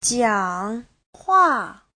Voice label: "jiang3 hua4", chinese; Giáo trình Hán ngữ

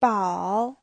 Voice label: "bao", Chinese; 抱; (tính từ) no; Giáo trình chuẩn HSK3

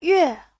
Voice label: "yue4", Chinese; 越; (phó từ) càng; Giáo trình chuẩn HSK3

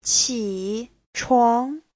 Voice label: "qi3chuang2", chinese; Giáo trình Hán ngữ

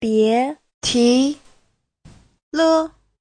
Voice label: "bie2ti2le5", Chinese; 别提了; đừng nhắc đến nữa

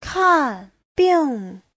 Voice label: "kan4 bing4", chinese; 看病 : khám bệnh; Giáo trình Hán ngữ

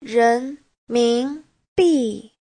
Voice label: "ren2min2bi4", chinese; Giáo trình Hán ngữ

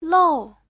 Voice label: "lou4", chinese; 落; rơi, rụng

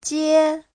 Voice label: "jie1", chinese; 接; (động từ) đón; Giáo trình chuẩn HSK3

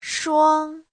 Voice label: "shuang1", Chinese; 双; (lượng từ) đôi; Giáo trình chuẩn HSK3

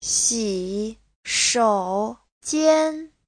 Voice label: "xi3shou3jian1", Chinese; 洗手间; (danh từ) nhà vệ sinh; Giáo trình chuẩn HSK3

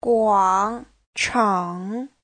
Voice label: "guang3 chang3", chinese; Giáo trình Hán ngữ

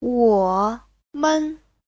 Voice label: "wo3men5", Chinese

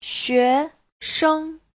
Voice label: "xue2 sheng5", Chinese

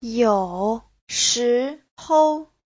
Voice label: "you3shi2hou5", Chinese

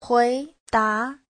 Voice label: "hui2da2", chinese

